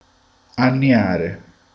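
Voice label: "annegare", Italian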